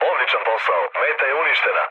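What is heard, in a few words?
Serbian bomb success mood low, speech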